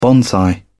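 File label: "bonsai", British English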